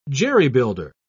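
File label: "jerry-builder", British English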